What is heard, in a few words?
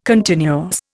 "continuous", Indian